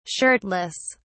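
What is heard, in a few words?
"shirtless", British English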